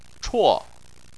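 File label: "chuo" fourth tone, Chinese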